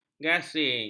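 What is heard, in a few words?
"gasing", Malay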